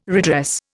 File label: "redress", Indian